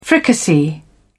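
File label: "fricassee", British English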